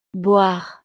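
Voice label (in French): "boire", French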